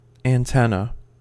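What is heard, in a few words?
"antenna", American English; pronunciations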